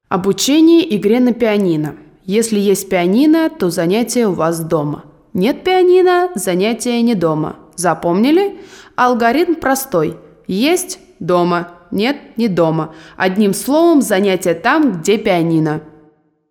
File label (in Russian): Diktori studii Reclama; Bashnya